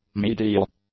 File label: "medial", English; adjectives